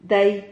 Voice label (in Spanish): "dai", Silabas